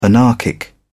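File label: "anarchic", British English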